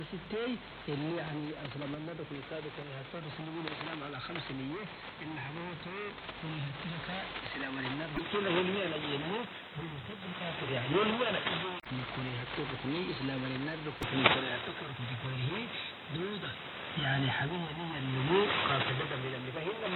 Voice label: 4780khz; Radio Djibouti